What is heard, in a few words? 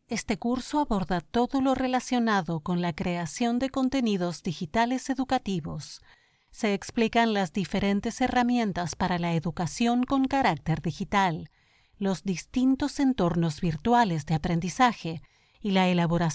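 e-learning, Argentine Spanish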